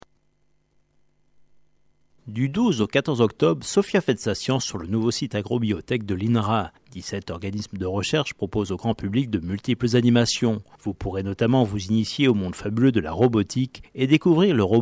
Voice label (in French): interview radio; radio cote d azur